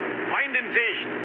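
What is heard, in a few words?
German enemy detected mood med, speech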